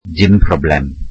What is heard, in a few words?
welsh no problem